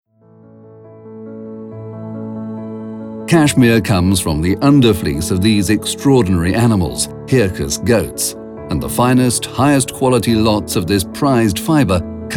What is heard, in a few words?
E-LEARNING; LOROPIANA